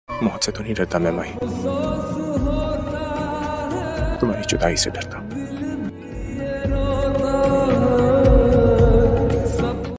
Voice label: Dialogue Ringtone; Tumhari Judai Se Darta Hu